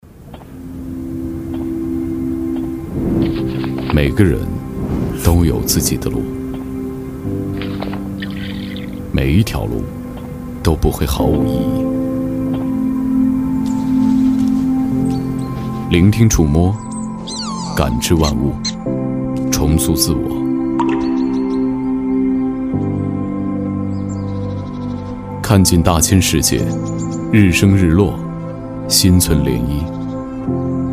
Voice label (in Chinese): 宣传片沉静